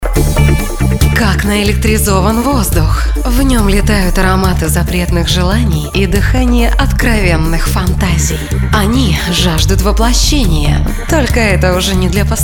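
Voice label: Russian, female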